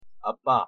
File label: "아빠", Korean; pronunciation